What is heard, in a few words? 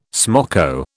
"smoko", British